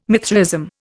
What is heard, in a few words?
"Mithraism", Indian